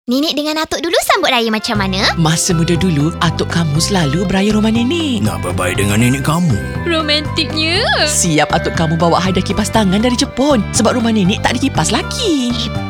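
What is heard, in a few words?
Malay; KDK FANS SAFETY DEVICE SWITCH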